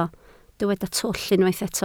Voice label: "twll", Welsh